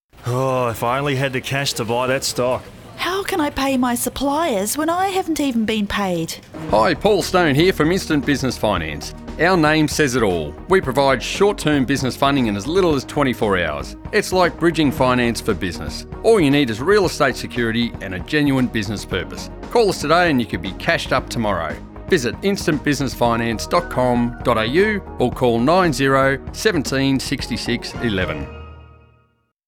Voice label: advert; instant business finance